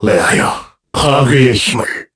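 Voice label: Vox Skill, Korean; KaselB; kingsraid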